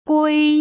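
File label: "gui", Putonghua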